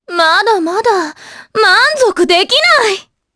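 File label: Vox Skill, Japanese; Epis; kingsraid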